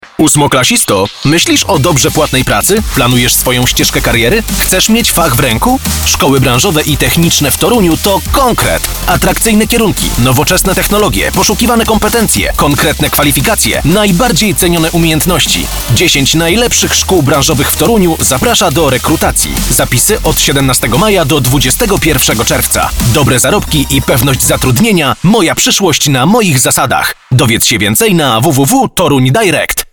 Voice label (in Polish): spot radiowy; szkolnictwo